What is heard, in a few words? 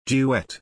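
"duet", English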